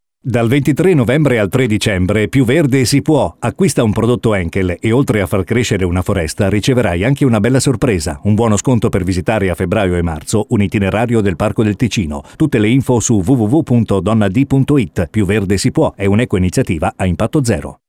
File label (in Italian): spot radio; henkel